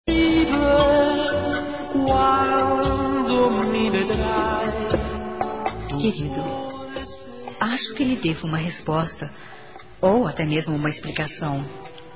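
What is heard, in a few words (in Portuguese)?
Desculpas pelo bolo, Feminino; Telemensagens; Telemensagens 2017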